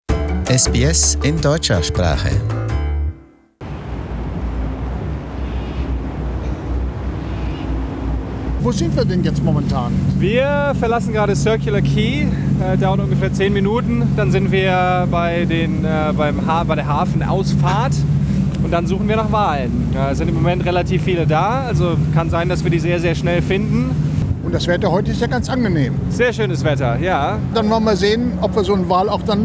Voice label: german; Whale Watching; SBS German